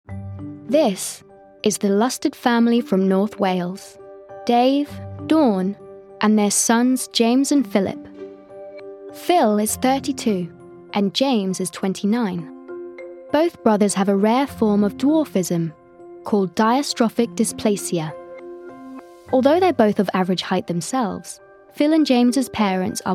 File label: doco